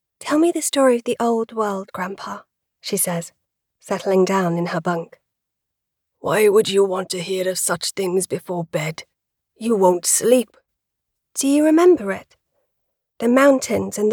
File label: Welsh accent child and elderly voices